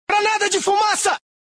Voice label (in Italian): granada stordente, Militia Voice; Modern Warfare 2